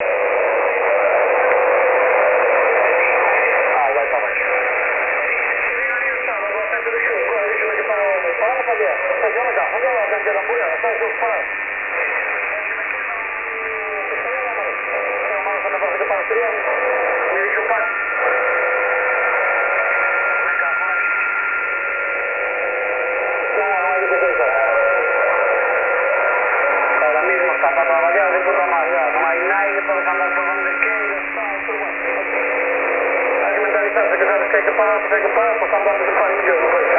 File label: ssb on 30m